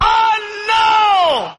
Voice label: "oh no", Voice